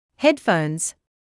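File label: "headphones", English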